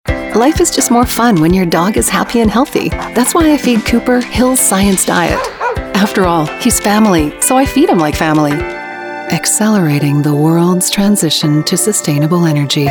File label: Commercial